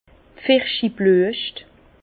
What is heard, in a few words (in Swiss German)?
"pfirschi bluescht", Bas Rhin; vin; fleurs de pêcher